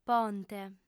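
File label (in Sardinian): "ponte", female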